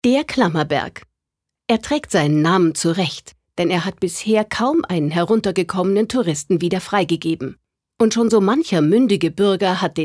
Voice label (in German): Kommentar Neutral